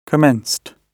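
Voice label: "commenced", British English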